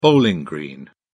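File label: "bowling green", English, British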